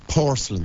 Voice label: porcelain, Irish